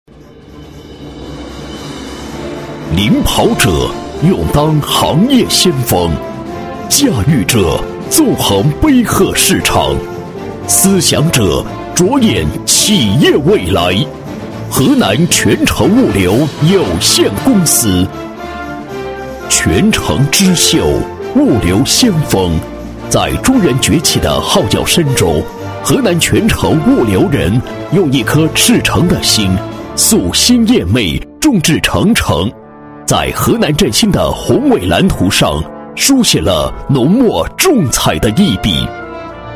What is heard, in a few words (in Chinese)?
男 专题 物流企业大气豪迈, 国语男声配音